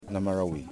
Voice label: "Bird", Yimas

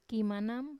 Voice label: "kimanam", he agrees, wamesa

